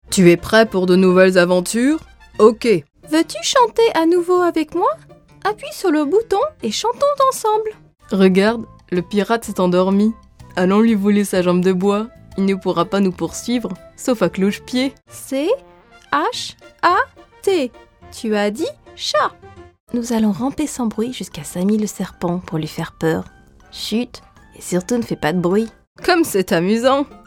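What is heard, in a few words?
French Toys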